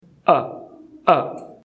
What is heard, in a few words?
"caret", English